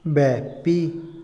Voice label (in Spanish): "mandar", Otomí, hombre; INALI